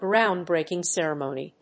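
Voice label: "ground breaking ceremony", English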